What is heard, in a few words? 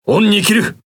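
Speech Quick Commands Thank You, Japanese; World Of Warships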